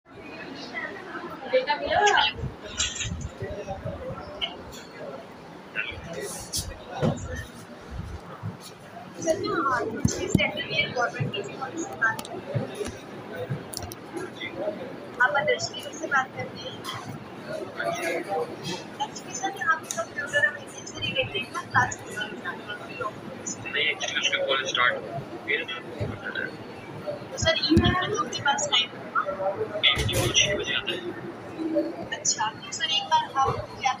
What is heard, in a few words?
call recording